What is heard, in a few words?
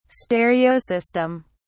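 "stereo system", British English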